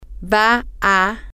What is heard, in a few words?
he is going to, spanish, F